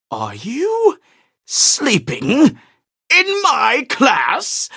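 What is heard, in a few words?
levelup, English; Cookie Run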